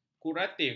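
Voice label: "kuratif", Malay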